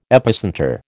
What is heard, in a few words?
"epicentre", American